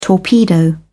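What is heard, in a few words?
"torpedo", English, British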